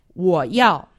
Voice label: "woyao", Chinese; Survival Chinese

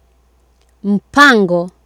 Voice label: "mpango", Swahili

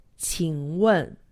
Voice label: "qingwen", Chinese; Survival Chinese

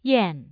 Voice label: "yan4", Mandarin tone

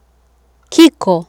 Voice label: "kiko", swahili

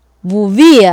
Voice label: "vuvia", swahili

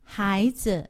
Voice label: "haizi", Chinese; Survival Chinese

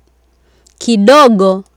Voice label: "kidogo", Swahili

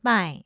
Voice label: mai4, Mandarin tone

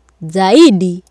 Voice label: "zaidi", Swahili

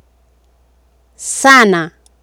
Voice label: "sana", Swahili

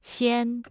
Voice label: "xian1", Mandarin tone

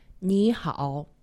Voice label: "nihao", Chinese; Survival Chinese

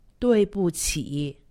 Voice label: "duibuqi", Chinese; Survival Chinese

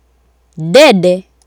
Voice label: "dede", Swahili

